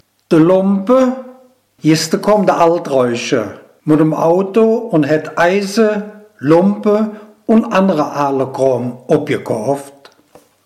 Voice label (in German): "Lumpe" im Satz; heimatfreunde weibern